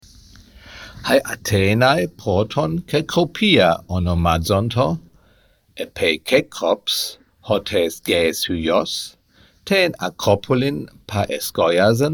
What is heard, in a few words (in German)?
Hoerverstehen Altgriechisch; Aufgabenbeispiele